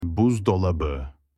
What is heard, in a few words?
refrigerator in turkish